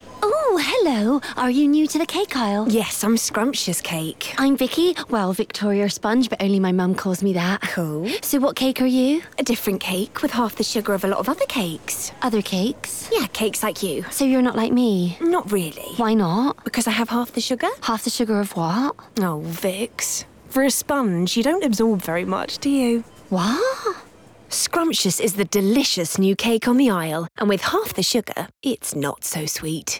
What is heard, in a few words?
radio; Scrummmptious